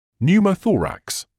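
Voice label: "pneumothorax", British English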